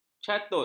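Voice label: "catut", Malay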